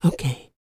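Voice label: dialog, female spoken; ILIO; Vocal Planet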